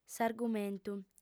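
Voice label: "s'argumentu", Sardinian female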